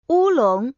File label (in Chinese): wulong, woman